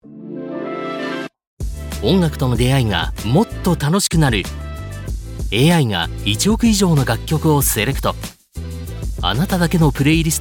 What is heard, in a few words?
japanese commercial